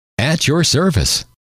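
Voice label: at your service, male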